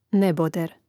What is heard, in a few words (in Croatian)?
"neboder", Hrvatski; neizvorni; mreznik